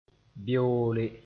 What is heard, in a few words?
"beoole", Mooré